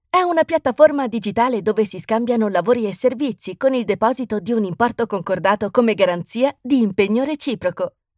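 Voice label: Energetic Ad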